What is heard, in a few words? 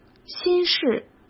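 "新式", Mandarin Chinese; chinese-flashcards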